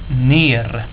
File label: "ner", swedish